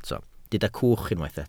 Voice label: "cwch", Welsh